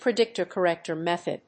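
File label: "predictor-corrector method", English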